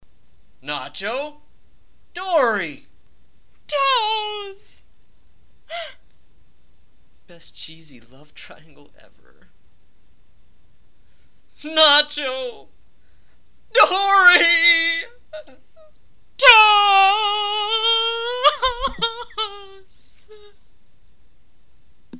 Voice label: Cheesy Read; DORITOS